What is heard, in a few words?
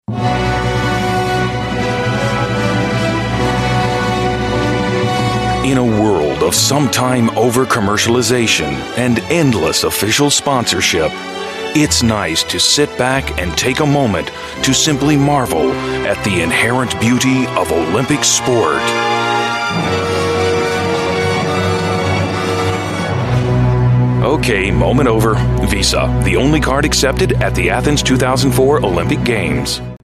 US English; VISA